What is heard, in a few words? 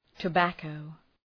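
"tobacco", English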